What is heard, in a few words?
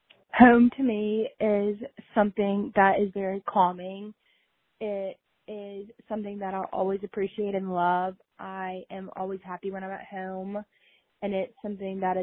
Voicemail